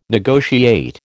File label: "negotiate", American